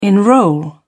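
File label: "enrol", British English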